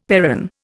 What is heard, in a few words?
"perron", Indian; Dictionary